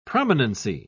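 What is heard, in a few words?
"prominency", British English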